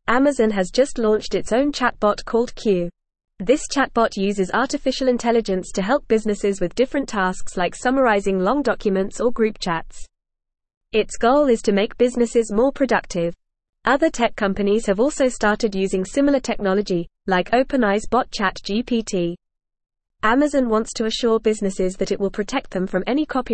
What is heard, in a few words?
Upper Intermediate FAST Reading, English; English Newsroom; Amazon Introduces Q Chatbot to Boost Business Produ